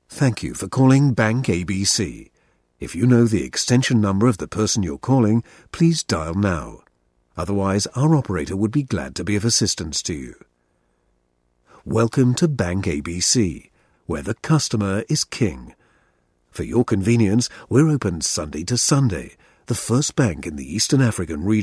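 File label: English British male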